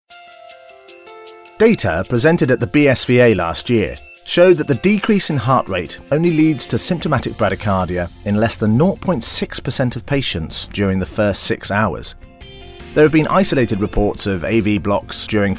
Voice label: Medical Narration